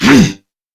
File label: Threatened Crewmen, Dialog, Barks, Battle; Warframe; CorpusCrewmen; CrewmanA